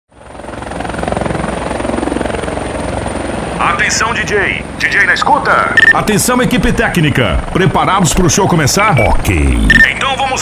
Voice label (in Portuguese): Locutor Rodeio